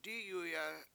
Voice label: "dIyuya7" I hear, Washo